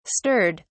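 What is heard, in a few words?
"stirred", English, British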